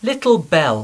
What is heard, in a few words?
"little bell", English